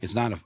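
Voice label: iTs not a, American English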